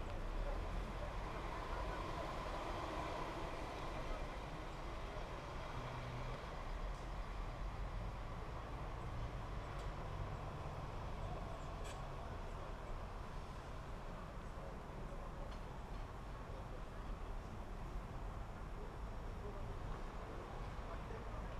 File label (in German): Achtung an Gleis 5 ein Zug faehrt durch, Ansagen